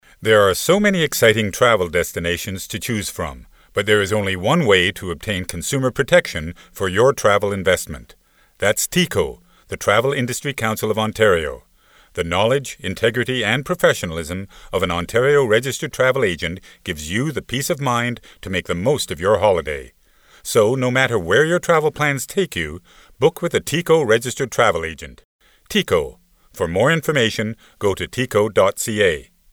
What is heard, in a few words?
psa